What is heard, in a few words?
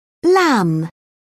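"lam", British English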